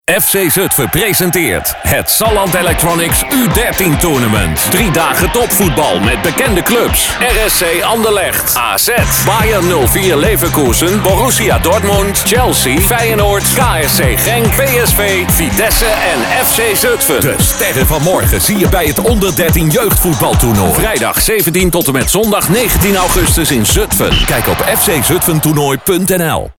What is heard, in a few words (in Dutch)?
Radiocommercial; FC Zutphen U13 Toernooi 2018